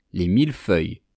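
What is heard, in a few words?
"les mille feuilles", French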